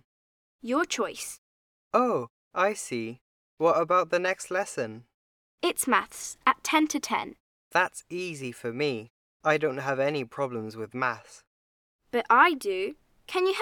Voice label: Listen and read the conversation Timetable homework; Unit 5 Listening